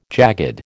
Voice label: "jagged", American